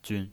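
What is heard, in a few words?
"jun", Mandarin Chinese, neutral tone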